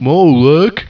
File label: annoyed; war2